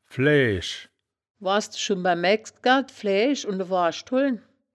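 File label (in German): "flaeaesch", wittlicher; Flääsch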